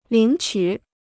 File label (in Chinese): "领取", Chinese